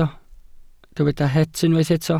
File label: "het", Welsh